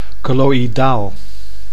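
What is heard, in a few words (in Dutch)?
"colloidaal", Dutch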